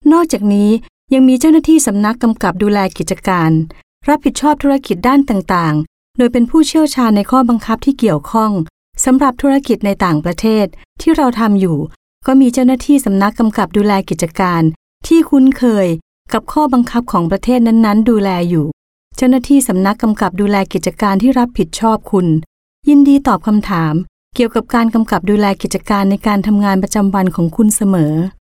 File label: Thai F